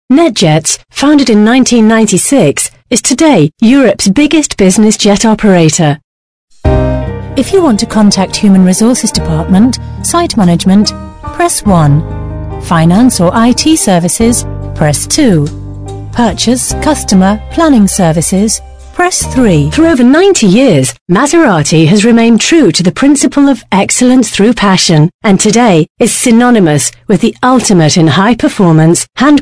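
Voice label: English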